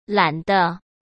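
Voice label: "懒得", Mandarin Chinese; hsk; chinese-flashcards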